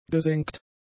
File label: "gesenkt", German; adjectives